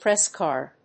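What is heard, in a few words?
"press card", English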